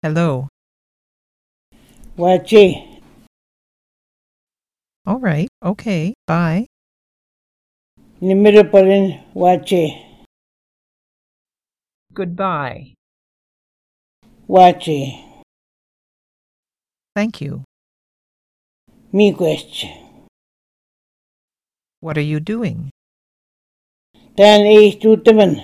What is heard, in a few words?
Moose Cree greetings; Linguistic Atlas